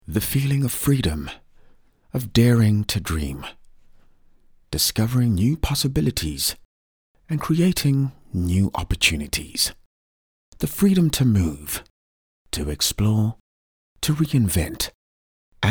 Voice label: Intimate; OSM Talent

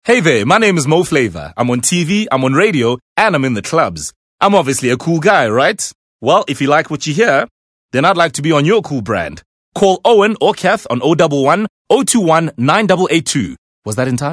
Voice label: English Conversational

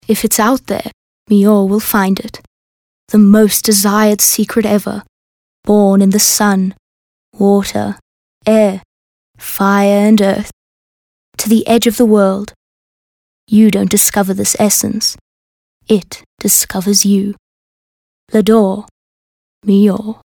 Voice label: English Intimate

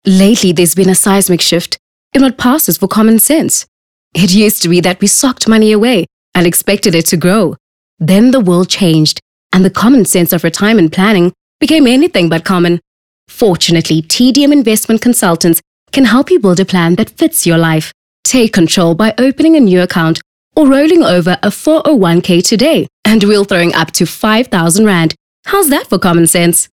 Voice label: English Corporate

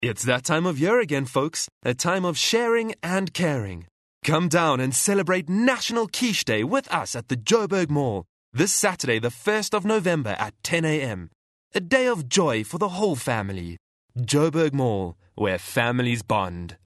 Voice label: English Softsell

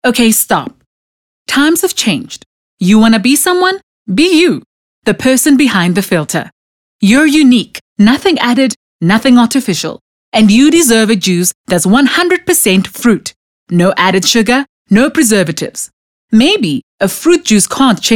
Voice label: English Bright

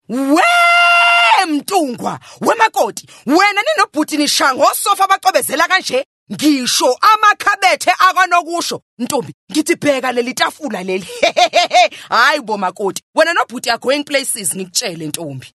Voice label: Zulu dramatic

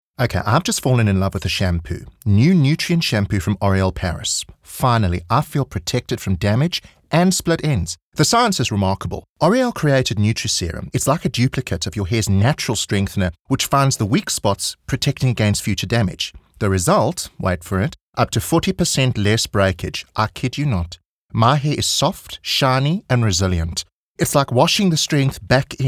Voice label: English Character Feminine; OSM Talent

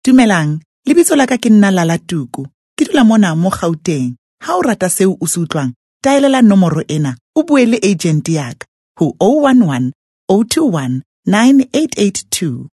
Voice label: Tswana Conversational

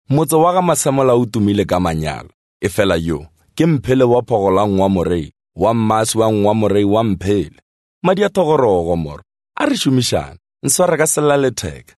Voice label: Nothern Sotho Softsell

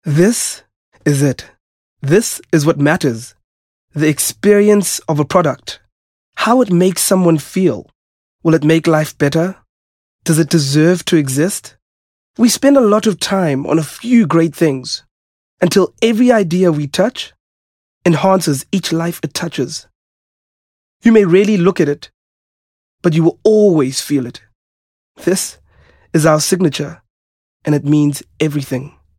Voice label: English SoftSell

